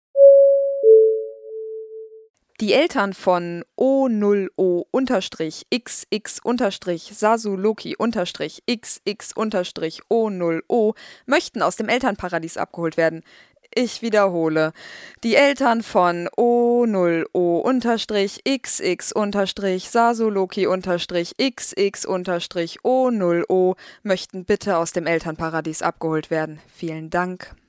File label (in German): durchsage